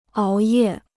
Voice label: "熬夜", Chinese